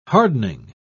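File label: "hardening", British English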